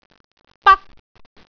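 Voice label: "bak1", Cantonese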